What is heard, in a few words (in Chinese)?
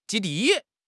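"基地", Chinese; HSK6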